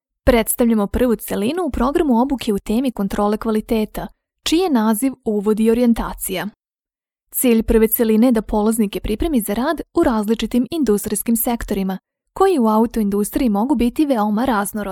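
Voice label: Serbian female corporate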